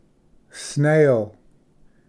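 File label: "snail", English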